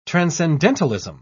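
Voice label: "transcendentalism", British English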